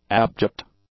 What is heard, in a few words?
"abject", english; adjectives